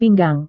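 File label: "pinggang", Indonesian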